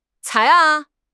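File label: "cai2", Mandarin Chinese; HSK3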